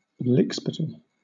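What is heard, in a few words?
"lickspittle", British English; pronunciations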